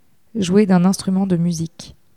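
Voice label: "jouer d un instrument de musique", French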